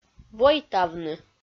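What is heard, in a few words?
"войтавны", Komi, female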